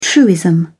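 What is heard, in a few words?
"truism", British English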